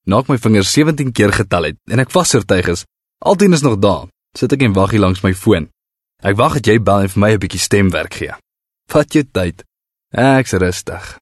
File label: Afrikaans Conversational